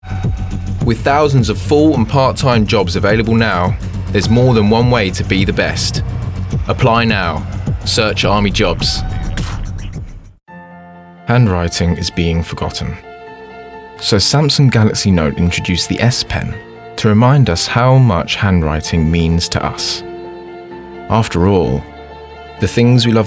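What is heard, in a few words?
commercial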